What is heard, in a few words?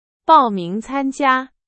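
Chinese, example sentence; hsk2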